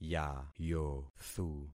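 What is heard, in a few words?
"yayothu", concatenated syllables; Affixing experiment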